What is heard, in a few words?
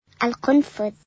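"hedgehogs", Arabic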